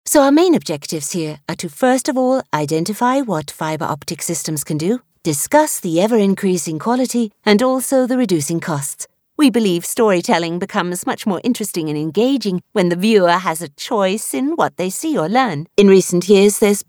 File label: 40s Female British eLearning